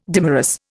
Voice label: "dimerous", Indian